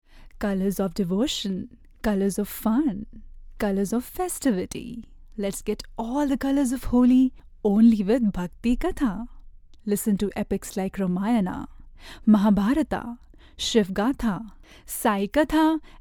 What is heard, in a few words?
ivr prompt english